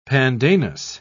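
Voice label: "pandanus", British English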